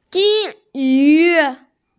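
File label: "jin yu", Mandarin Chinese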